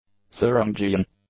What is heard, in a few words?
"Thuringian", english; adjectives